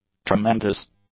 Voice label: "tremendous", English; adjectives